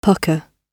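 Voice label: "pucker", English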